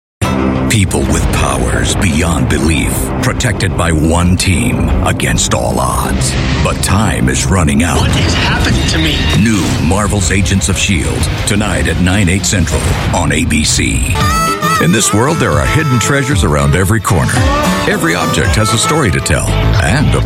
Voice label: US English Network Promos Reel, English US Male

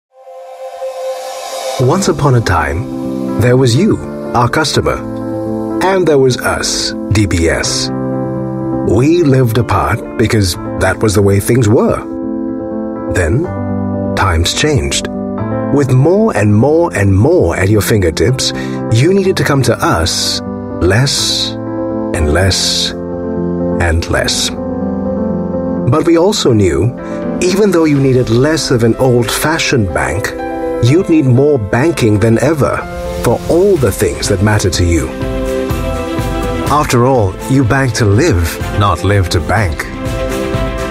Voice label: Asian English; Our Ethos DBS

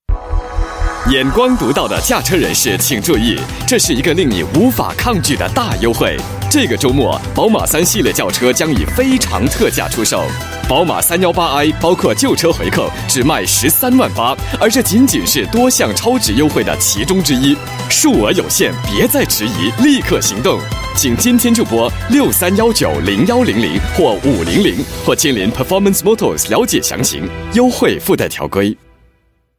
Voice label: Mandarin Radio Spot; BMW 3Series

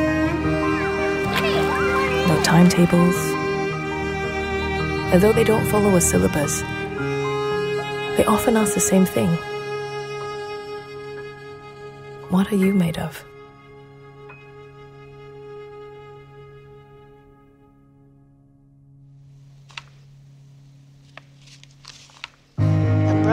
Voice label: Asian English Tests TVC

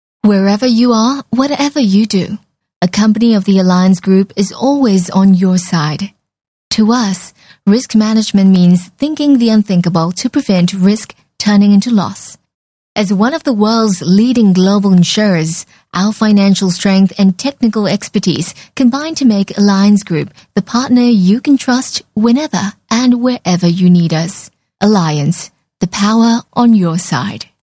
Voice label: Asian English Corporate

